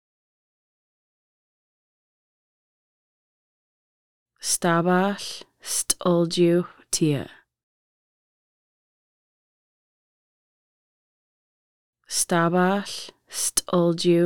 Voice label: What kind of medicine is it stabaɬ st̕əlǰixʷ tiʔəʔ, Lushootseed